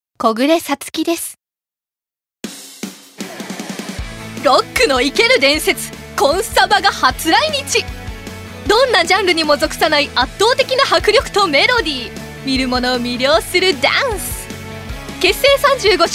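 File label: commercial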